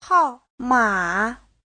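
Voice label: "hàomǎ", Chinese; 号码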